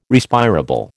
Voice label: "Respirable", American

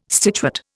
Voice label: "stitchwort", Indian

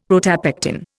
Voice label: "protopectin", Indian; Dictionary

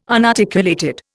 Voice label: "unarticulated", Indian

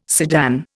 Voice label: "sedan", Indian

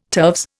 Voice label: "turves", Indian

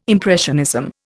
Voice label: "impressionism", Indian

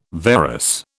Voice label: "varus", British

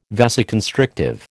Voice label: "vasoconstrictive", American

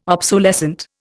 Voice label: "obsolescent", Indian

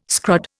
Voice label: "scrod", Indian

